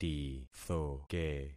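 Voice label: "tithoke", concatenated syllables; Affixing experiment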